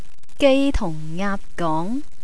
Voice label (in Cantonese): gai tung aap gong, Cantonese, slang